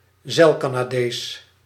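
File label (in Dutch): "zeilcanadees", Dutch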